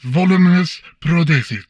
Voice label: humanoid male; Stellaris; humanoids